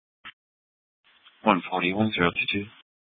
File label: Police Dispatch, scanner; Regional Wireless Cooperative (RWC) - Simulcast H- Scottsdale; Paradise Valley Police